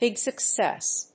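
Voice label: "big success", English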